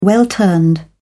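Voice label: "well-turned", British English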